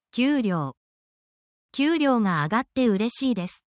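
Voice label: Japanese word, japanese phrases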